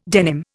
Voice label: "denim", Indian